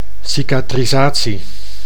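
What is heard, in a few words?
"cicatrisatie", Dutch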